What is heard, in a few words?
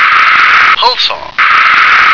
"pulsar", English